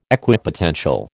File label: "Equipotential", American